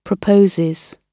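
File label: "proposes", British English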